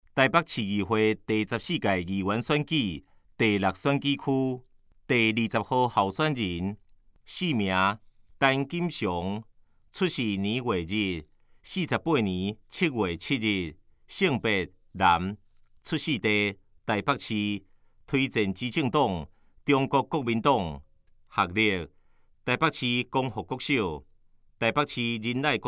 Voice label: 台語; 臺北市; 有聲公報; 市議員; 臺北市議會第14屆議員第6選舉區 (大安、文山區)候選人介紹

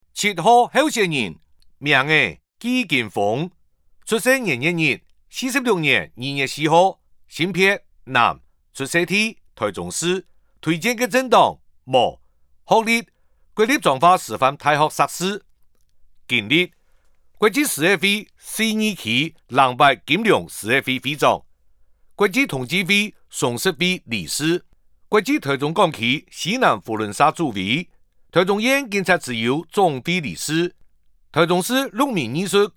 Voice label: 客語; 臺中市; 有聲公報; 市議員; 第02選區

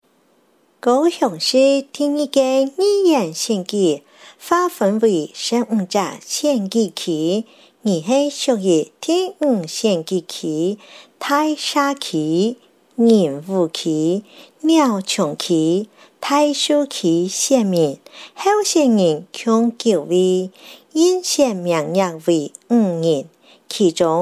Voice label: 客語; 第五選區

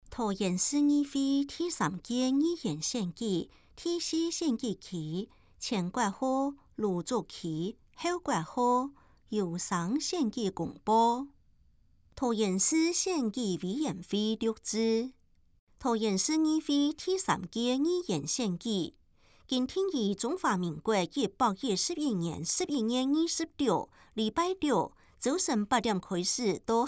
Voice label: 前言, 客語; 有聲公報; 市議員

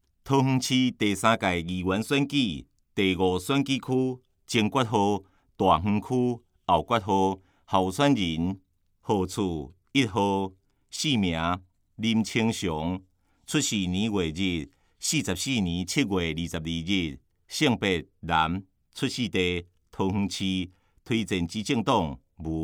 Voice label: 台語; 有聲公報; 市議員

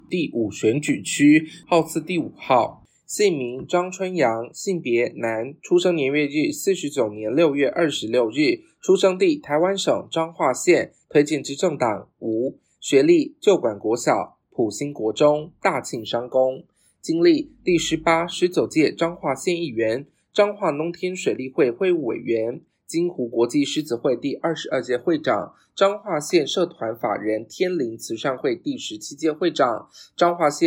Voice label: 國語; 彰化縣; 有聲公報; 縣議員; 第五選舉區

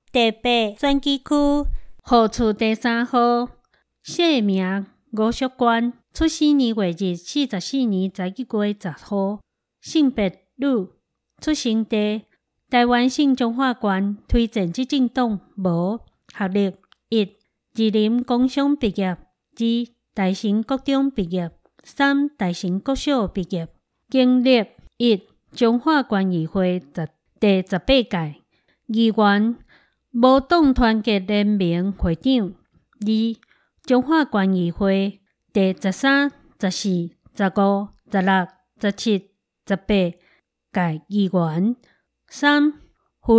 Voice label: 臺語; 彰化縣; 有聲公報; 縣議員; 第八選舉區

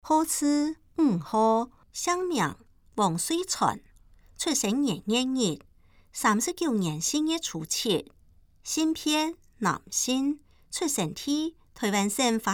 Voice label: 客語; 新北市; 有聲公報; 市議員; 第11選區

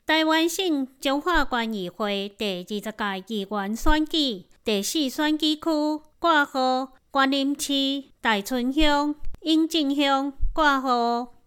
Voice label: 臺語; 彰化縣; 有聲公報; 縣議員; 第四選舉區

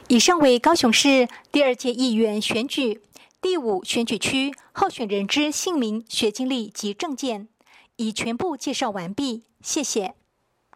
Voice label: 國語, 有聲選舉公報; 第五選區

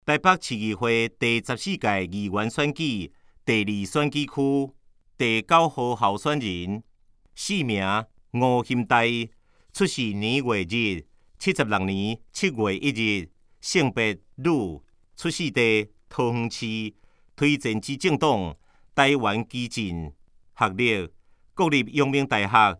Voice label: 台語; 臺北市; 有聲公報; 市議員; 臺北市議會第14屆議員第2選舉區 (內湖、南港區)候選人介紹

